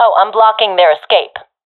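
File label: guarding escapezone, professional female; vo; Counter Strike Model Pack; CS:GO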